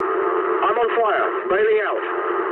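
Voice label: English on fire mood low; speech; War Thunder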